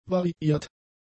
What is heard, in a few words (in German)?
"variiert", German; adjectives